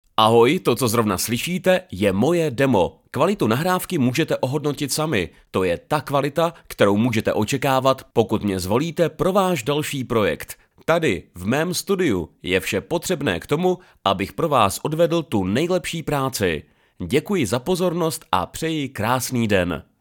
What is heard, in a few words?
czech male